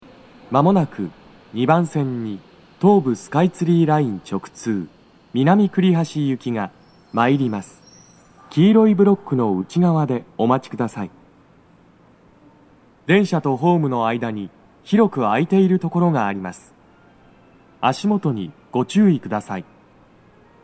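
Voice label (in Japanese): 接近放送, 男声, 六本木駅; 放送は「南栗橋行」です。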